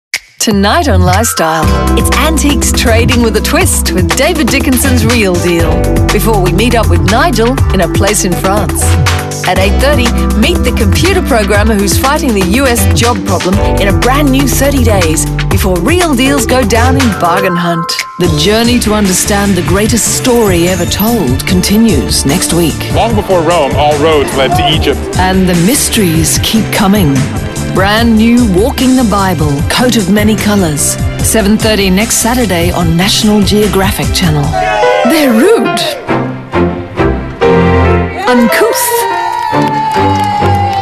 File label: promos